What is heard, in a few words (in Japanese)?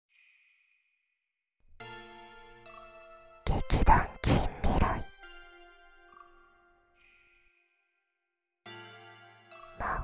タイトルコール; 関連作品サンプル; 魔法の肯定力…千年魔王のナユタ様を助けたら、とびきりの愛されセックスで守られ続ける物語♪